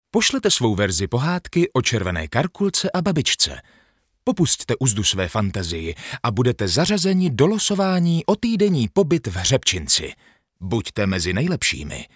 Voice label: czech m commercial